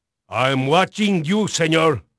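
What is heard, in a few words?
Spanish male, voice; Pirates Of The Caribbean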